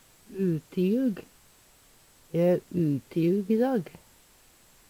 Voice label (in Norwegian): utiug, Numedalsmål; Adjektiv